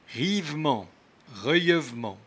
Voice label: "ryevement", patois jura

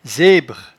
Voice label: "zebre", patois jura

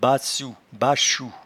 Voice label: "bassoubachou", patois jura

